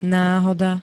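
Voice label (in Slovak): "náhoda", Slovak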